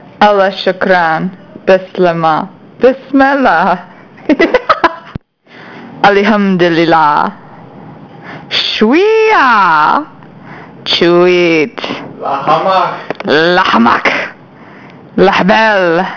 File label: speak Moroccan